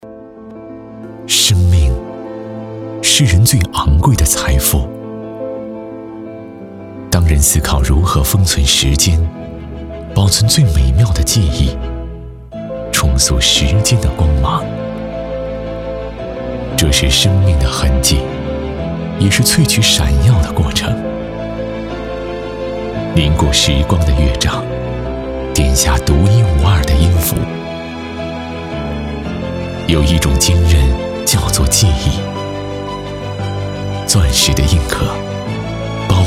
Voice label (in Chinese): 男 广告 钻石; 10Chillax; APM Monaco